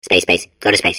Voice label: babble; portal2